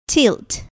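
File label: "tilt", English word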